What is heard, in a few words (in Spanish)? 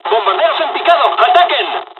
dialogs spanish; War Thunder